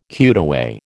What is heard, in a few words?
"cutaway", American